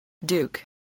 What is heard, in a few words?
"duke", English